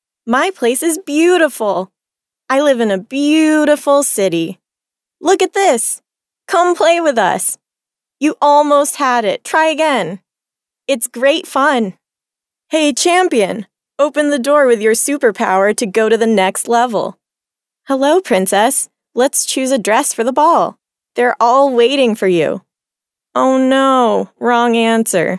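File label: American English female children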